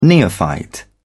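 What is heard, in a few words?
"neophyte", British English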